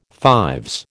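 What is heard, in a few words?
"fives", American English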